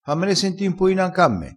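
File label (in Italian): "senti", dialettale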